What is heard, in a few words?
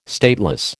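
"stateless", American English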